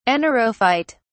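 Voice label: "anaerophyte", British English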